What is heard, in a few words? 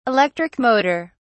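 "electric motor", British English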